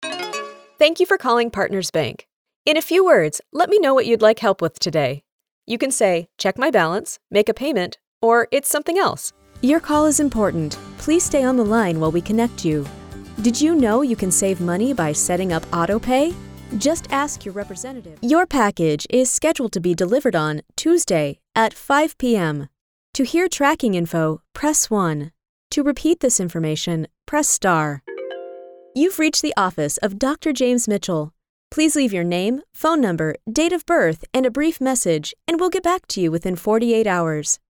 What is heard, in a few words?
english american ivr